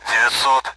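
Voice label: Speech Sound Russian Common AWACS Numbers; DCS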